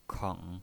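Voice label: "keng", third tone, pinyin voice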